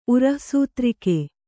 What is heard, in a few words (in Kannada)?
"ಉರಃಸೂತ್ರಿಕೆ", kannada